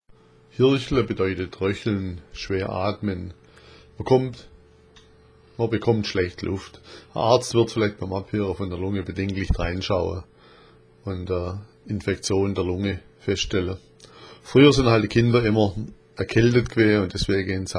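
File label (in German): hirchla, schwäbisch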